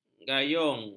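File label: "gayung", Malay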